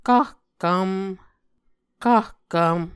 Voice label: "kahkvm", Muscogee